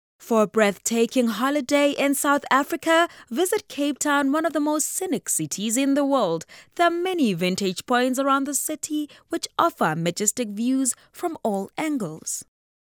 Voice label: female african voice over sales, english